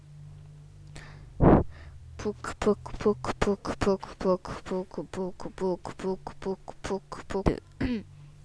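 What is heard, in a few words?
"book", spoken word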